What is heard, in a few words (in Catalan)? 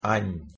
"any", Com pronunciar en català